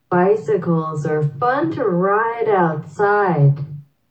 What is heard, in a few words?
bicycles en slow